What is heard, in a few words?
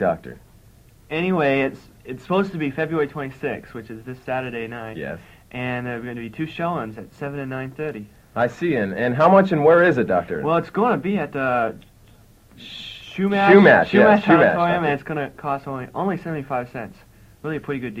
promo cartoon festival